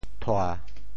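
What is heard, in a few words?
"thua7", Teochew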